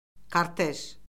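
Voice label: "kortej", Azerbaijani; Orfoqrafiya Lüğəti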